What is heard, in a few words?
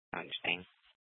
Scottsdale PD Sth Dispatch, scanner